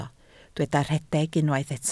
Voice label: "rhedeg", Welsh

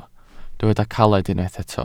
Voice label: "caled", Welsh

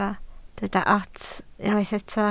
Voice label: "ots", Welsh

